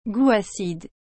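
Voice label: "gout acide", French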